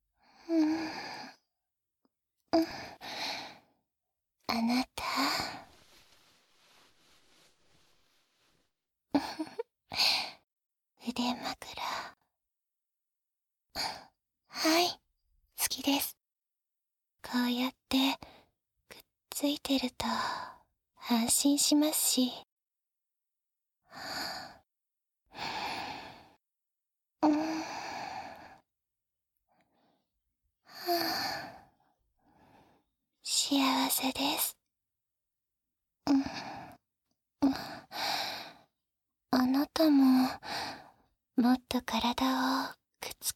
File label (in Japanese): ピロートーク&耳舐め, ASMR